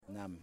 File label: "House", Yimas